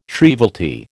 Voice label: "shrievalty", American

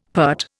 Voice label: "peart", Indian; Dictionary

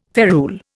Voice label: "ferule", Indian

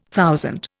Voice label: "thousand", Indian

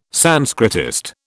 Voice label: "Sanskritist", British

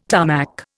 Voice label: "Tarmac", Indian

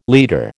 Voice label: "leader", American

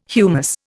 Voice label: "humus", Indian

